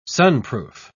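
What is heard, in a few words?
"sunproof", English, uk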